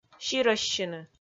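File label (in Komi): "сирӧссьыны", Komi, female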